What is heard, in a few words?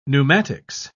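"pneumatics", British English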